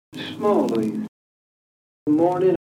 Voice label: kent thought, accents; appledore

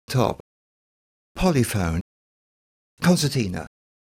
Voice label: lot, kent examples accents; meopham

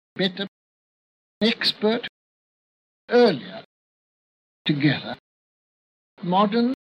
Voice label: russian r; hg wells; bbc 1931

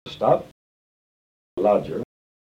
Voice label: kent lot, accents; denton

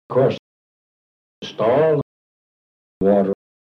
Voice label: kent though, accents

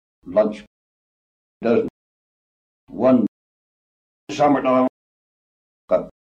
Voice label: kent goudhurst strut, accents

